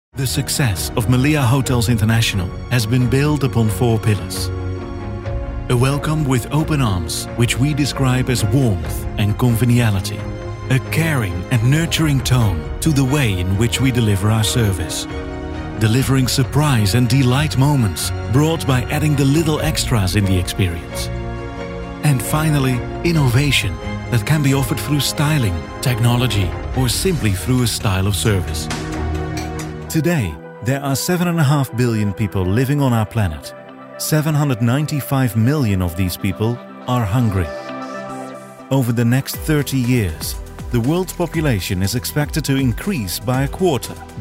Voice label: Corporate (English)